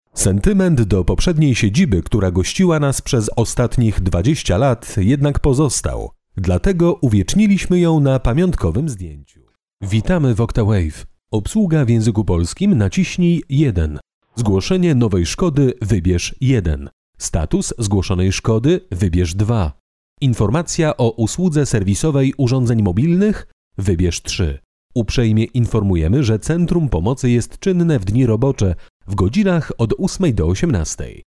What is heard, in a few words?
male polish voice over talent narrative